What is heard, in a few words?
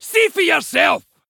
Male Dwarf Attack